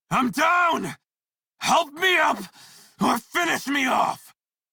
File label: Crew Need Revive Male en; Dialog; Warframe; Railjack Crew; Red Veil